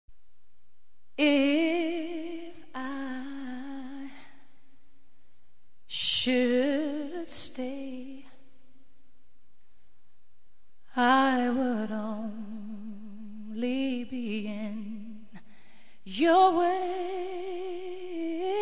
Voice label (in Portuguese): ROM BOM DIA MASC